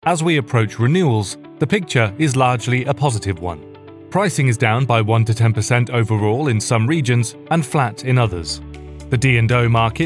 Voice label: english british corporate videos, british voice actors